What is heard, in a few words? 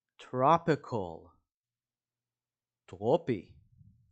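"tropical" English, "טרופי" Hebrew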